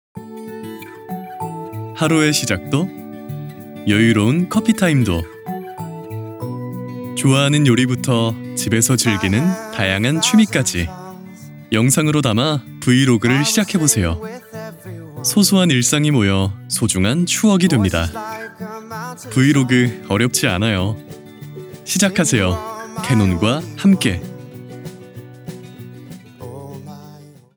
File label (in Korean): 밝은 느낌의 샘플입니다